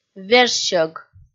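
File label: "вежсьӧг", Komi, female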